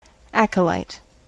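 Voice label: "acolyte", US English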